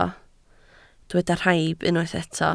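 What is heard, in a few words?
"rhaib" Welsh, spoken word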